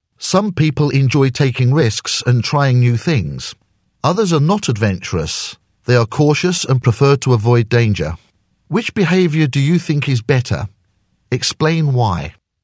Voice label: speaking accents; toefl; adventuous or cautious